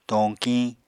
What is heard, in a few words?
"toñkiñ", apatani